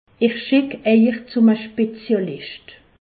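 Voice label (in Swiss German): "spezialischt", Alsace, Haut Rhin; je vais vous adresser à un spécialiste; medecine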